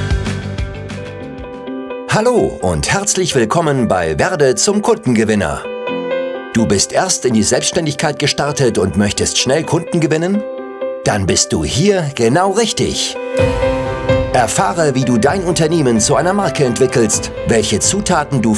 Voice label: German Podcast Voice Over

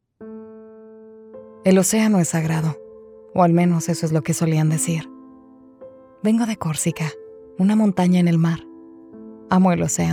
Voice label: Dubbing Documental; OneOcean

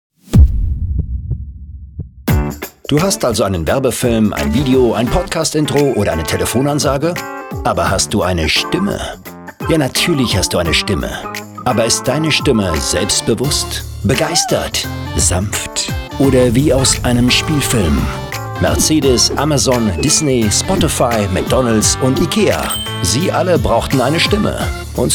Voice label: German Voice

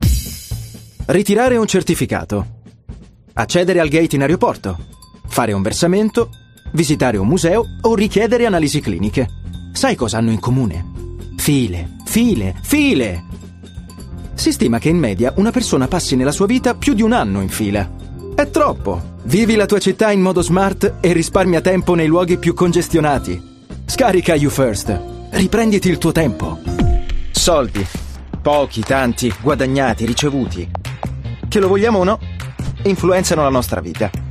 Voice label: Explainer Animated

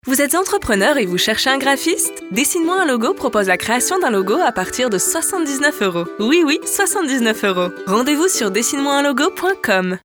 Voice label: FEMALE FR

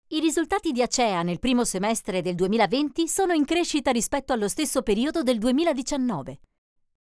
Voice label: Corporate raw

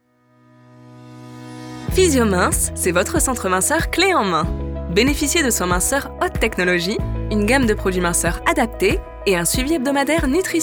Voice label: FR FEMALE VOICE SAMPLE

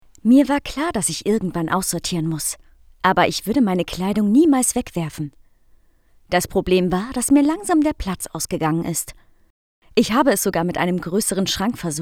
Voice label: Spot DE female

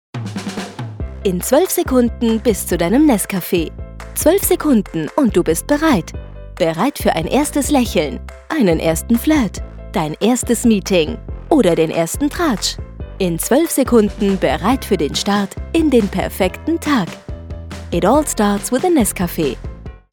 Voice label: voice Deutsch

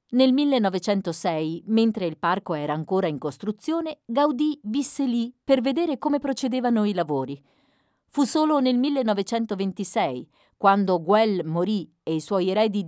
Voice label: Audio guide

